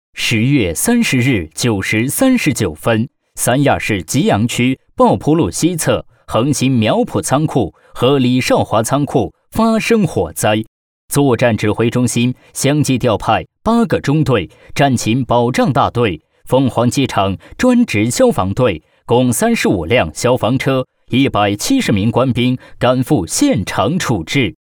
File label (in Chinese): 火灾扑救战评配音; 吉阳区抱坡路仓库